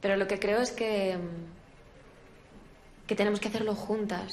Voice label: Voice Acting es F